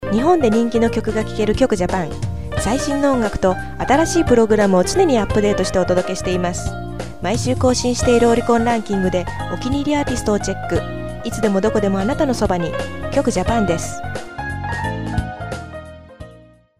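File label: Japanese, female, commercial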